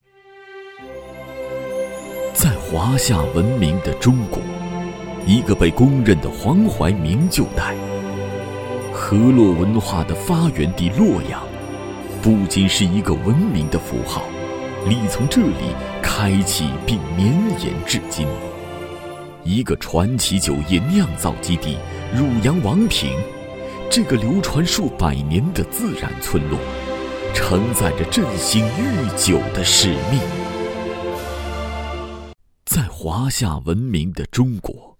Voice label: 男声 宣传片—酒类宣传片—文化大气 大气浑厚磁性 沉稳, 国语

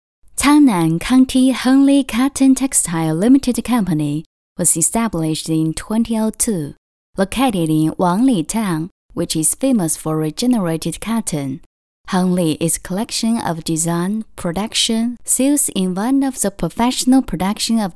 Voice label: 女S112 地铁站报站讲述 纯英文 积极向上 亲切甜美

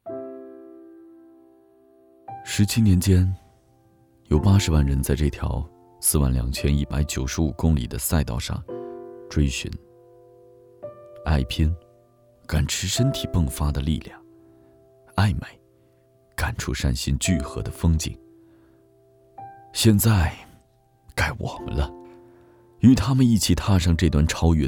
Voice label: 男声 内心独白—纯素人风 低沉 调性走心 感人煽情 素人, 国语

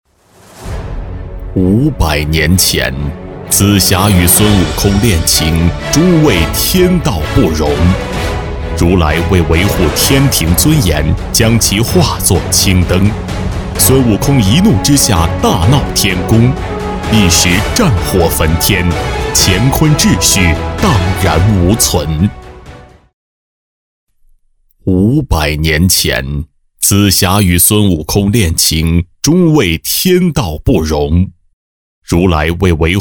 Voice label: 国语 男声 游戏片头demo（配乐+干声） 激情激昂 大气浑厚磁性 沉稳

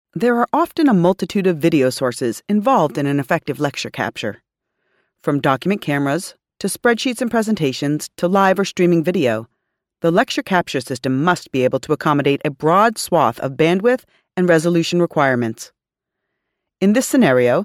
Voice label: 美式英语女声

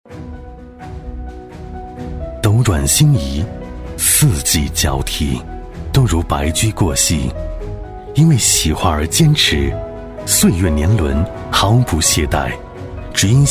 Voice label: 国语 男声 广告-葡萄酒-独白、男人味 激情激昂 大气浑厚磁性 科技感 积极向上

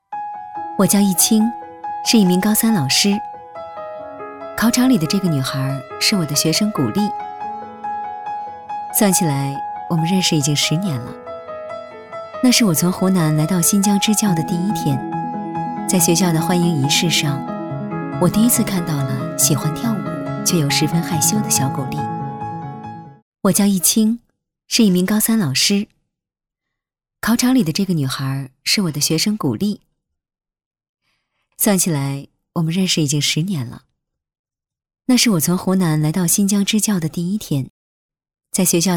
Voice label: 国语 女声 微电影旁白 自然讲述 甜美纯真 年轻女孩; 年轻女孩写信自白

